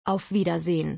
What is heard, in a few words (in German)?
"Auf Wiedersehen", German